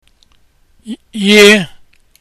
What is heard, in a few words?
y letter, igbo; Yabaasị; Onions